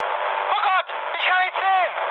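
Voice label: German searchlite mood high, speech; War Thunder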